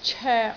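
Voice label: "coek3", Cantonese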